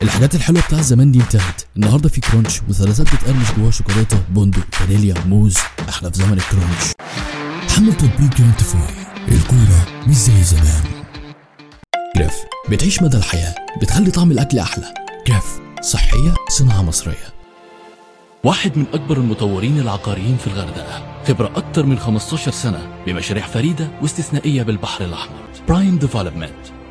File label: Commercial Egyptian Arabic; Demoreel